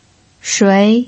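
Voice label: "shui", Mandarin Chinese pinyin, second tone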